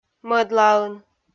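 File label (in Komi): "мӧдлаын", Komi, female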